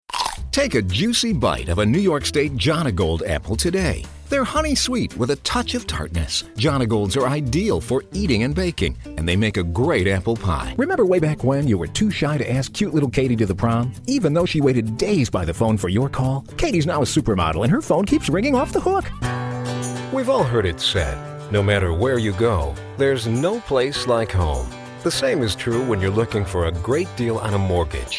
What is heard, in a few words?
Radio TV Commercials Voice